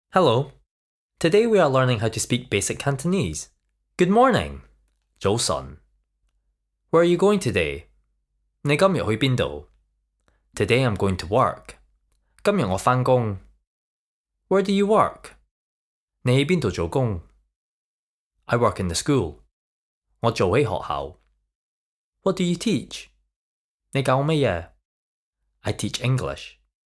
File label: Basic Cantonese